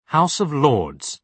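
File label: "house of lords", British English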